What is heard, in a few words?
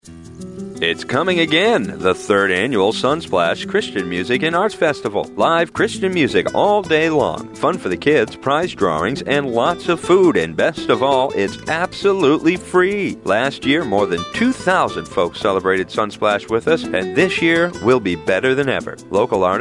SonSplash Radio Spot; SonSplash 2007 WNRI; one of the radio ads created to advertise SonSplash; Harvest Community Church